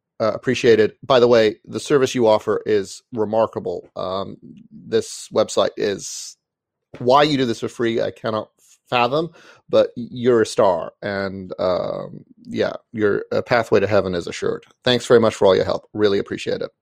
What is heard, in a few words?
testimonial message